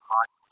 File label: hot Tone